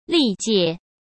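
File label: "历届", Mandarin Chinese; hsk; chinese-flashcards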